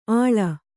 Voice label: "ಆಳ", Kannada